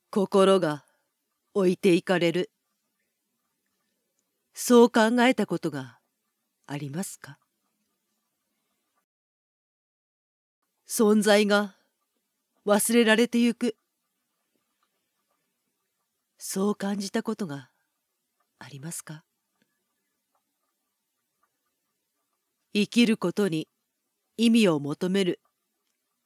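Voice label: sample narration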